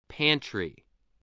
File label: "pantry", English voice